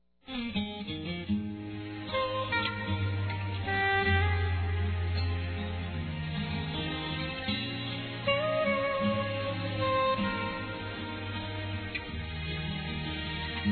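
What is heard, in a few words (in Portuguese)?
NEUTRO Masculino, Aniversários Evangelico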